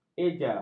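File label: "eja", Malay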